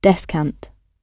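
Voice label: "descant", English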